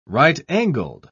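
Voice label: "right-angled", British English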